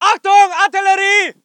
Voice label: voice ger infantry